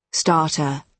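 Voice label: "starter", British English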